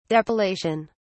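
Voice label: "depilation", English, British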